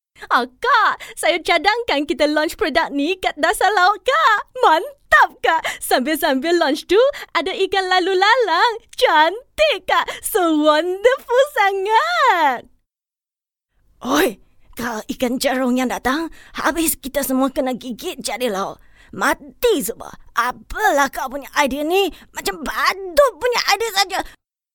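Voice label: Bahasa Malaysia Character Play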